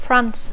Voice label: "France", English

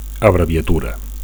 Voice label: "abreviatura", Catalan; (f) abbreviation

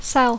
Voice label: "sell", English

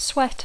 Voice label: "sweat", English; verb tr conj suar

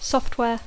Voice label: "software", English

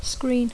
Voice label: "screen", English; pantalla; ocultar; protegir; projectar; cribrar

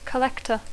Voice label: "collector", English

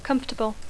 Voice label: "comfortable", English

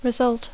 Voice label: "result", English

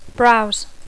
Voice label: "browse", English; navegar; fer una ullada; fullejar

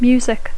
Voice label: "music", English; música

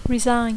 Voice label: "resign", English

